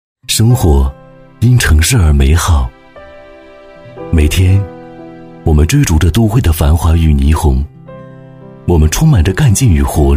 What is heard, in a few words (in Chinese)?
男 走心宣传片 磁性高端; 生活